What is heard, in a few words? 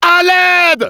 help me, fr; "À l'aide!"; Demoman